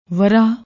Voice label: "vara", Punjabi; ਵਰ੍ਹਾ